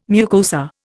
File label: "mucosa", Indian